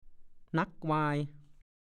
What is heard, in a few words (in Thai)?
"หนั๊กวาย", ภาษาโคราช; อะไรกันหนักหนา